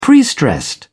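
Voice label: "prestressed", British English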